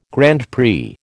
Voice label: "Grand Prix", American

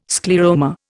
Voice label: "scleroma", Indian

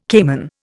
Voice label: "caiman", Indian

